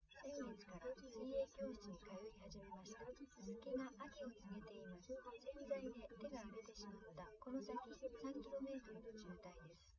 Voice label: female, live rec; SiSEC08; SiSEC underdetermined

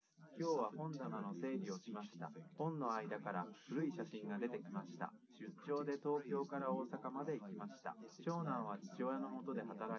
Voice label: test male live rec; SiSEC08; SiSEC underdetermined

